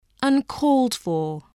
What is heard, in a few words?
"uncalled for", English spelling voice